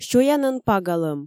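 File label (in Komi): сёянӧн пагалӧм, Komi, female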